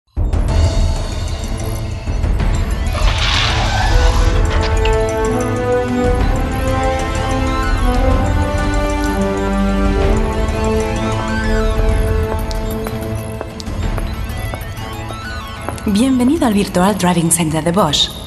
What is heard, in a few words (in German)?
Industriefilm span.; Bosch